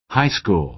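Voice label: "high school", American English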